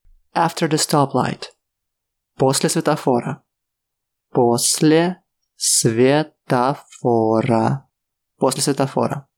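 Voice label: Russian, After the stoplight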